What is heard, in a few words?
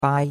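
"bai1", Chinese; Cours-de-Chinois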